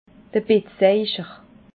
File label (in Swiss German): "bettseicher", alsace